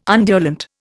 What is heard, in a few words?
"undulant", Indian